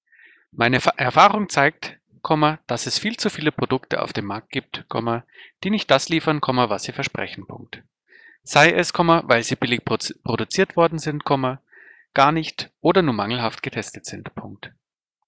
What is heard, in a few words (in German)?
Probetext laptop; Heute ist Post gekommen, was Neues zum testen :-)